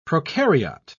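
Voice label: "procaryote", British English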